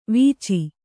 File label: "ವೀಚಿ", Kannada